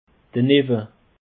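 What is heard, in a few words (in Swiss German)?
"denaewe", Bas Rhin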